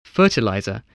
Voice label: "fertilizer", spelling